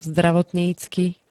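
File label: "zdravotnícky", Slovak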